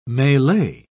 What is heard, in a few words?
"melee", pronounce